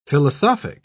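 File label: "philosophic", British English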